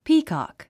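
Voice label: "peacock", English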